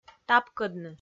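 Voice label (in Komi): "тапкӧдны", Komi, female; kpv; audio dict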